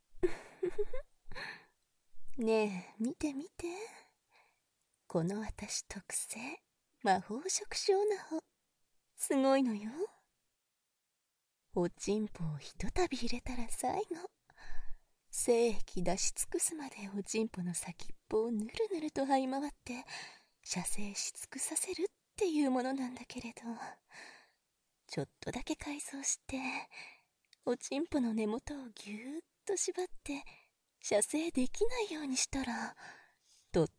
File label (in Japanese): 囁き重視編集 効果音あり大きめ, ASMR; 人工触手焦らし亀頭攻め